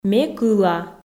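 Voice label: Komi sentences, female; ме кыла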